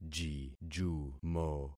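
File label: "jijumo", concatenated syllables; Affixing experiment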